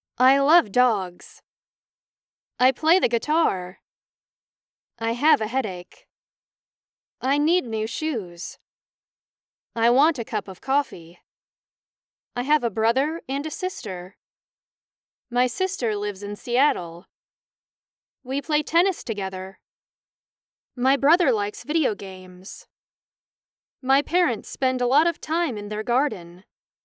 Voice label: Sentences Semi Natural